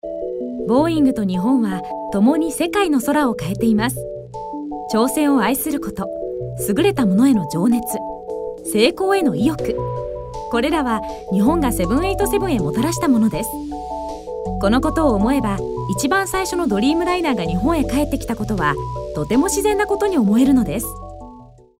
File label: Narration